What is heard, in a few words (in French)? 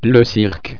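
"cirque", French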